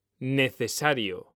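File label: "necesario", Spanish; mekanta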